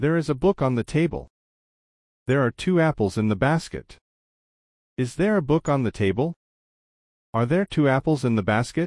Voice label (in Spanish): gramatica clase ingles